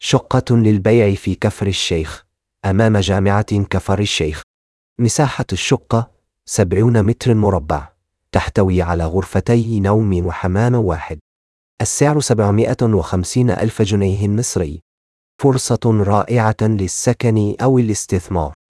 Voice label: narration audio